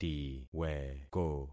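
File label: "tiweko", concatenated syllables; Affixing experiment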